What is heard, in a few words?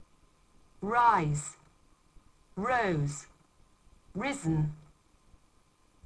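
"rise", English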